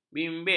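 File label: "bimbit", Malay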